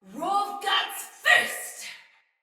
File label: Pre Death Sinecure Soldier Female; Battle; Barks; Dialog